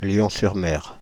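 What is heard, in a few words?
"Paris Lion-sur-Mer", French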